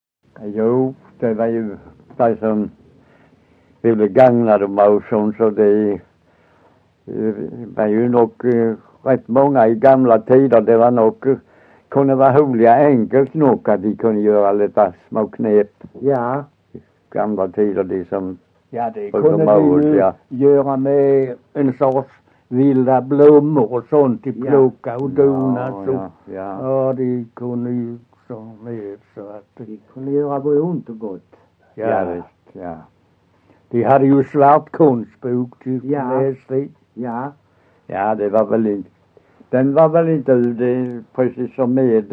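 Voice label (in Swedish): Össjö Skåne, dialekter, svenska sagor; Folkminnen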